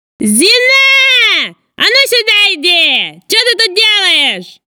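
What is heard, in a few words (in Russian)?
очень злая женщина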